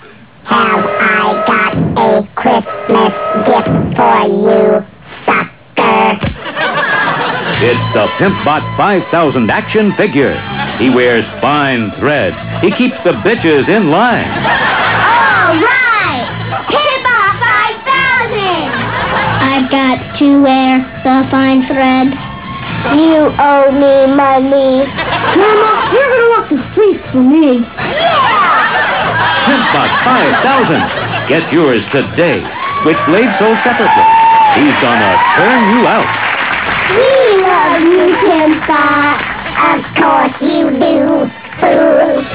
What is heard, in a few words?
commerc